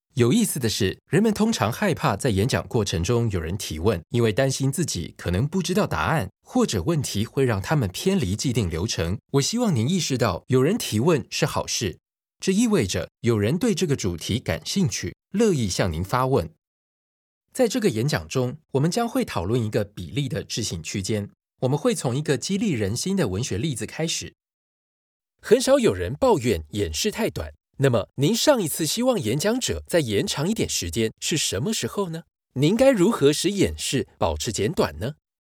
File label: Chinese Mandarin training e-learning